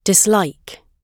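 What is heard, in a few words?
"dislike" spoken, British English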